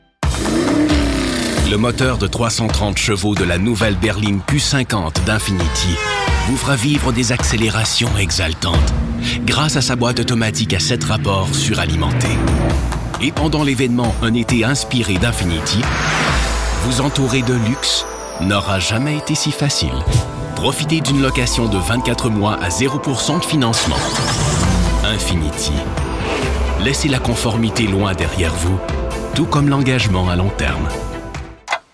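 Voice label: Canadian French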